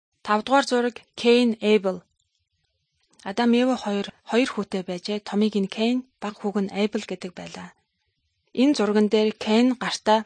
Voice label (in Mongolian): good news mongolian